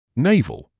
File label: "navel", English